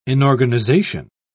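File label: "inorganization", British English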